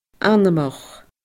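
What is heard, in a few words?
"anmoch", Scottish Gaelic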